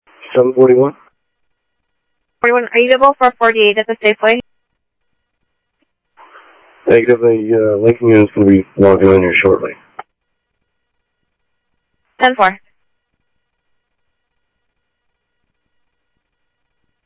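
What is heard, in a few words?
Sheriff East County, scanner audio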